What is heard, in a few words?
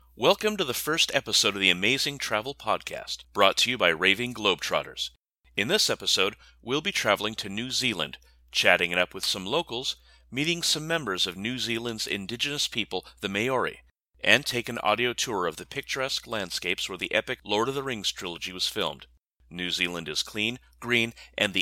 Podcast Travel